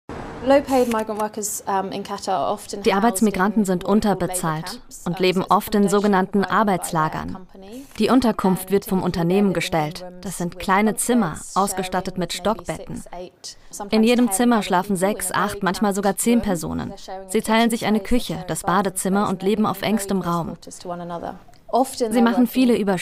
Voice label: voice over dokumentation mix